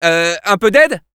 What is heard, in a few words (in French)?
Scout help me, fr